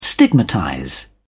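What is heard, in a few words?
"stigmatize", British English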